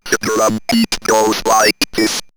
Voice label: Robot, robot vox; Goes Like Dis; X-Static Goldmine